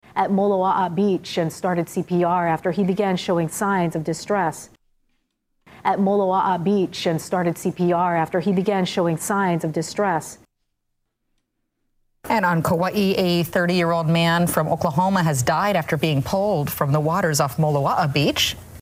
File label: MOLOA'A BEACH, pronunciation, Hawaii